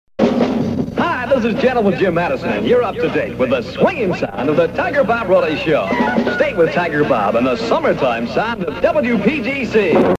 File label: promo; 1966 summer